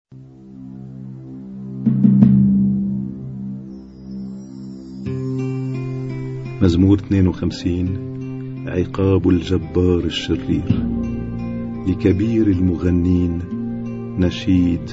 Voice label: Arabic Psalm Zaboor